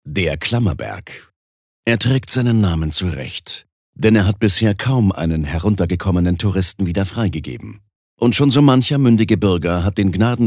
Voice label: Kommentar Neutral

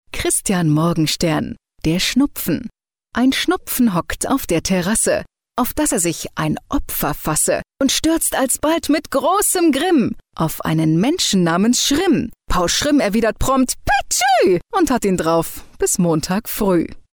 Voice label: Schauspiel Lebendig

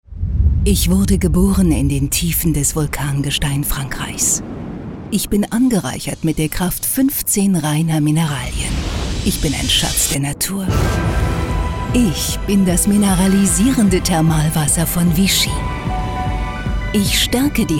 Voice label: Lebendig

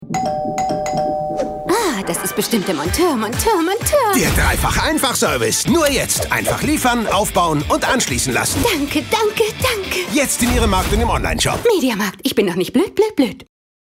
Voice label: Lebendig

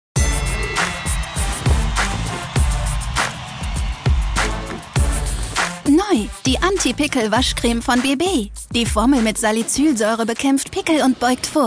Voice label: Freundlich

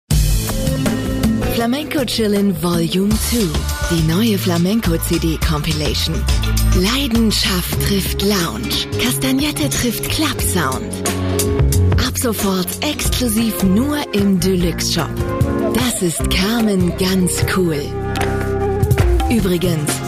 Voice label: Werbung Neutral

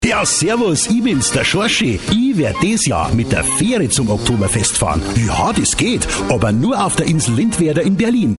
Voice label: Dialekt Bayerisch Freundlich

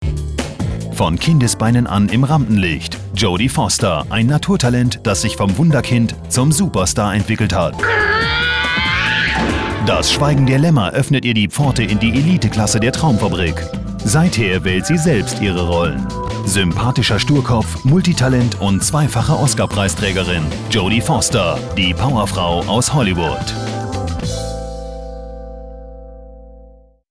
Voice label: Kommentar freundlich

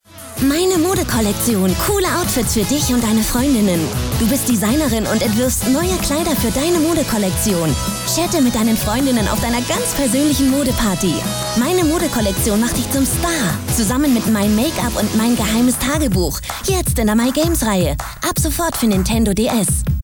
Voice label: W Fremdtake, Lebendig

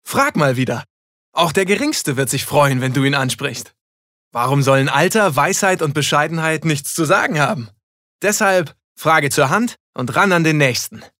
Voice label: Werbung Dynamisch, voices

